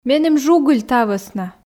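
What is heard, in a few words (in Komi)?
меным жугыль та вӧсна, Komi, female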